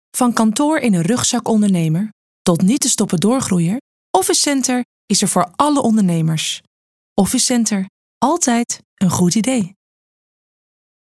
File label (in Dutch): Zakelijk, voice-over